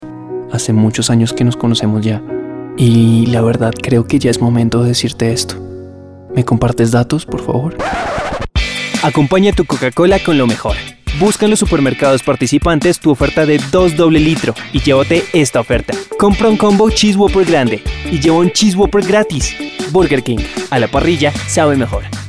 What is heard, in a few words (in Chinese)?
外籍西语男 独白